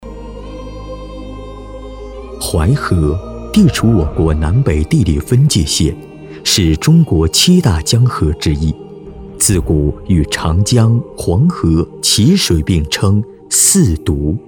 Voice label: 纪录片解说, 国语男声配音, 年轻浑厚; 淮河

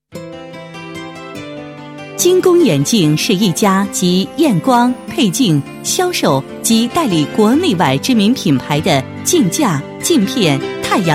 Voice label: 女 广告, 国语女声配音 全能配音; 眼镜

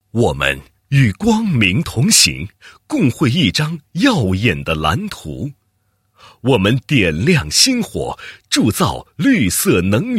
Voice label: 男 企业; 福建电力勘测设计院

